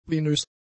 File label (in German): "venös", German; adjectives